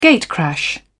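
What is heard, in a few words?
"gatecrash", British English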